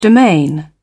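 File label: "domain", British English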